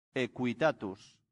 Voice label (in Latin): "equitatus", Latin; santillana